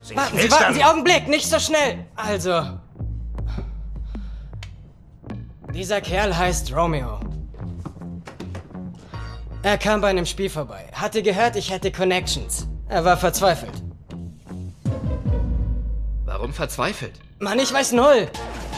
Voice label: Synchron, maenner; L.A´s Finest

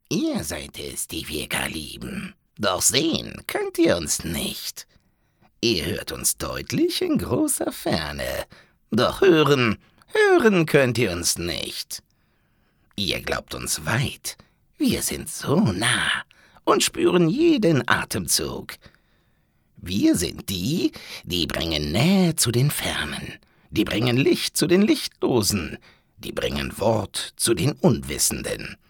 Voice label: comic; maenner; charge

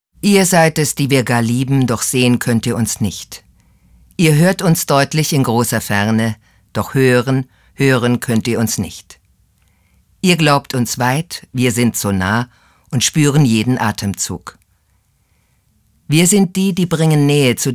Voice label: sachlich; sprecher; frauen

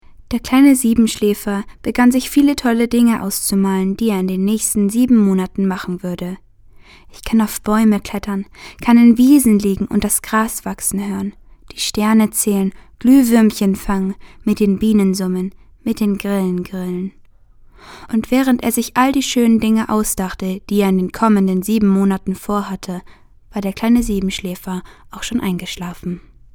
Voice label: maedchen; Der Siebenschläfer

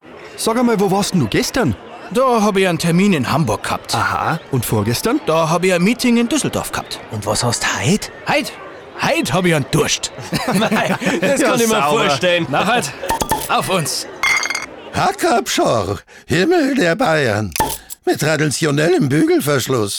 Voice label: bayerisch, maenner; Akzente+Dialekt; Hacker Durst

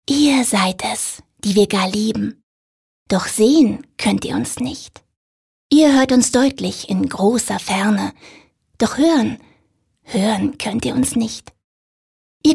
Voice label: poetisch, frauen; sprecher

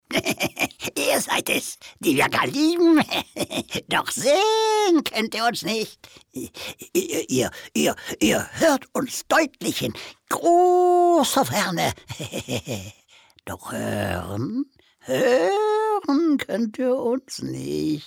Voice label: charge, maenner

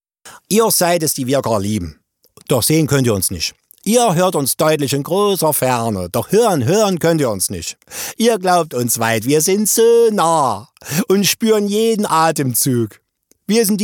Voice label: Dialekte sächsisch; maenner

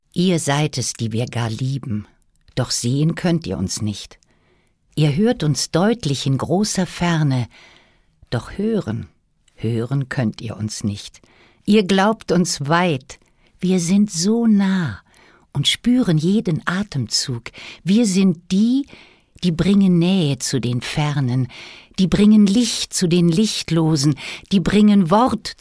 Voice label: Gedicht poetisch, frauen; sprecher

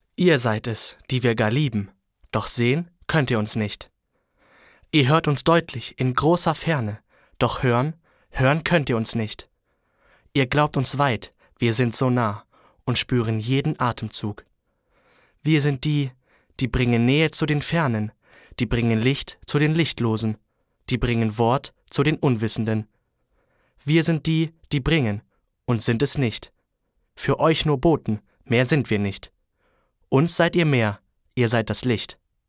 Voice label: Sachlich, jungen

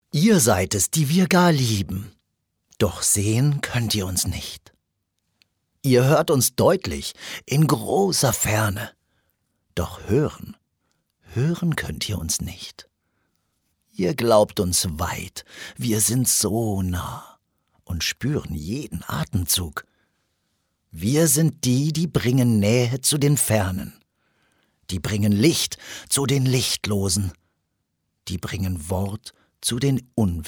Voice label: poetisch, maenner

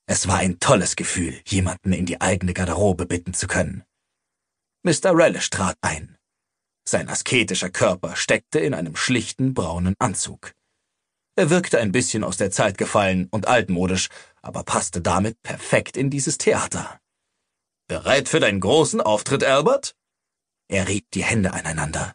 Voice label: Hoerbuch, maenner; Die Suche nach Tzunath; Signs of Magic

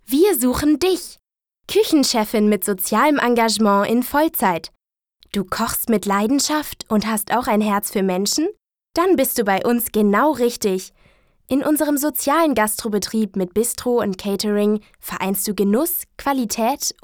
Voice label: Spot, frauen; sprecher; Spots; Condrops

